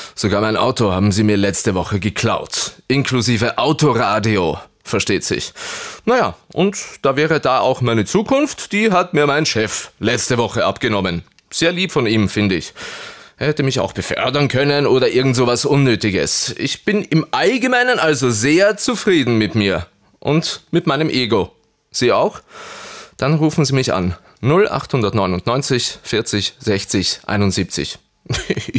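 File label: Austrian, Schauspiel Cool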